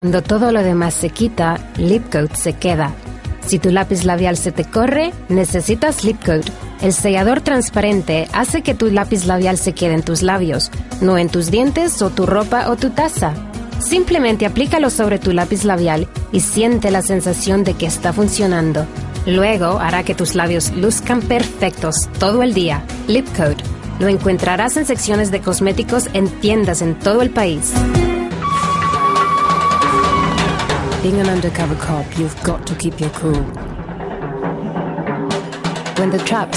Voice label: Spanish LATAM